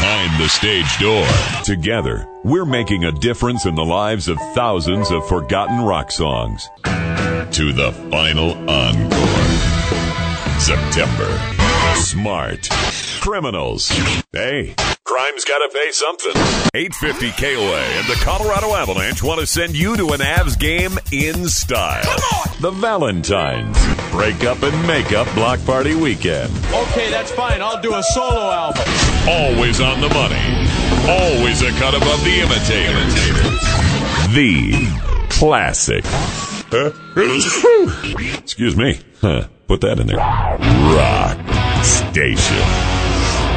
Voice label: RADIO LINERS